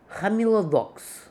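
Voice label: "Hamilodox", pronunciations; Fourth Way; Beelzebub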